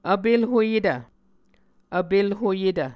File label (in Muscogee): "vpelhoyetv", muscogee